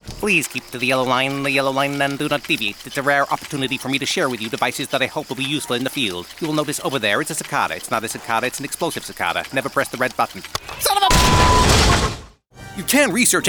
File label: english american animation